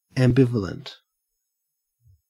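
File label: "ambivalent", Australian English; pronunciations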